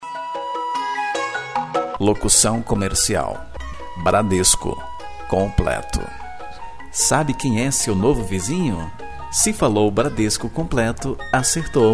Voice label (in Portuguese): spot; bradesco